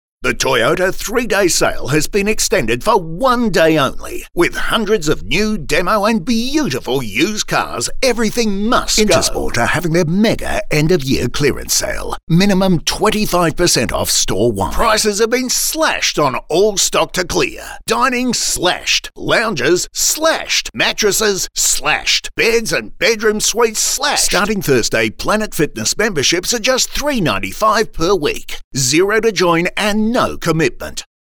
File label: Hard Sell